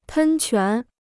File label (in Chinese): "喷泉", Chinese